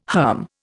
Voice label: "herm", Indian